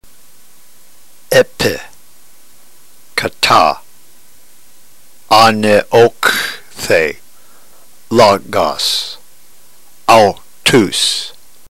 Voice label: drill, ntgreek

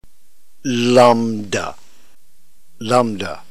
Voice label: "lambda", Greek

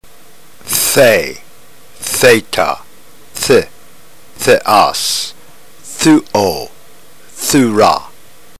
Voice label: drill, ntgreek

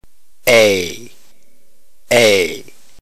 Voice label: eta, ntgreek phoneme

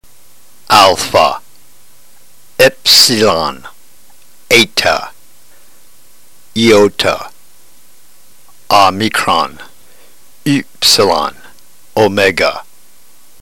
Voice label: drill, ntgreek

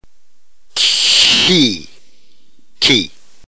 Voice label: "chi", ntgreek alphabet

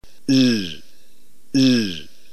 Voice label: lambda, ntgreek alphabet phoneme

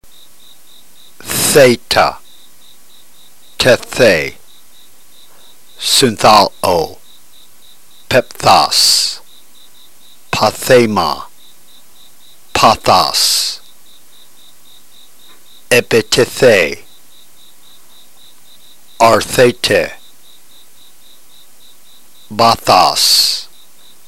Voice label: drill, ntgreek

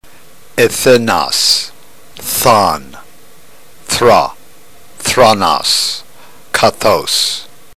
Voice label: drill, ntgreek

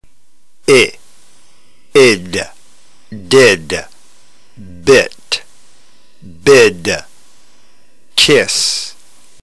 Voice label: drill, ntgreek

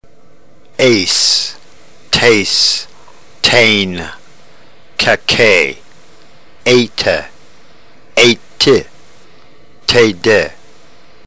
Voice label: drill, ntgreek

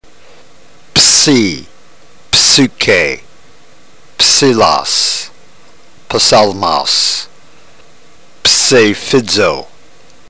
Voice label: drill, ntgreek

